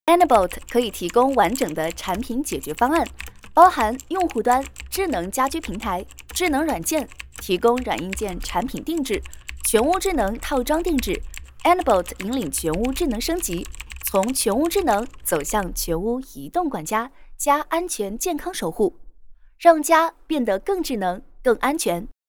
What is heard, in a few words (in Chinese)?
女 大音 科技智能; Enabot